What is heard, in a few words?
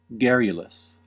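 "garrulous", American English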